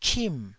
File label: "chim4", taiwanese syllable